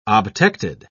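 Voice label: "obtected", British English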